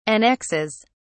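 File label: "annexes", British English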